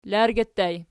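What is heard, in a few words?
"largi" ergative plural, Archi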